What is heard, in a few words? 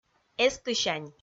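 "эстысянь", Komi, female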